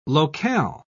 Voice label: "locale", pronounce